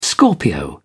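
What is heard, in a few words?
"scorpio", British English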